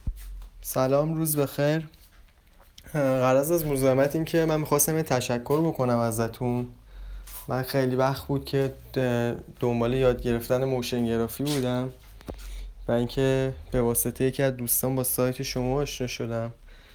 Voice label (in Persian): صدای مشتریان راضی